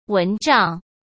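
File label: "蚊帐", Mandarin Chinese; hsk; chinese-flashcards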